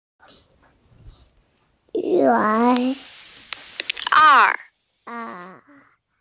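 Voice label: "er4", Mandarin Chinese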